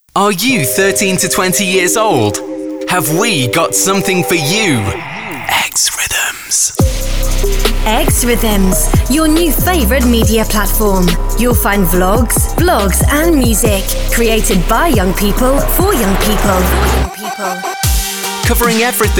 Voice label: teen young adult

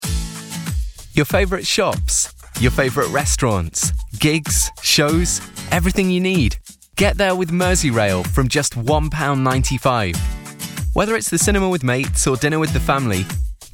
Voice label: Conversational and Spotify Voiceovers; professional English voice talent with a neutral or northern UK accent

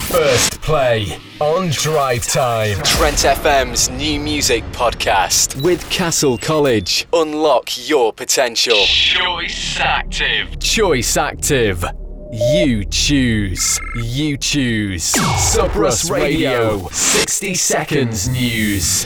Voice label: Station Imaging

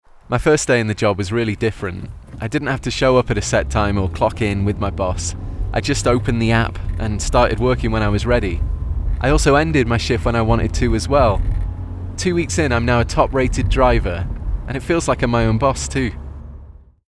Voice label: Young Northern English Guy Unassuming Natural

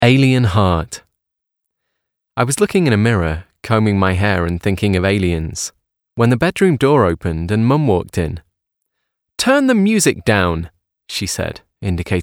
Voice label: Audiobook Narration, Male Voiceover

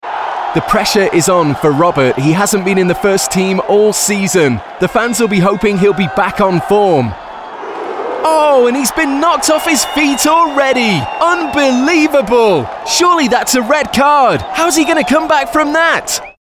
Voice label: Sports Commentator

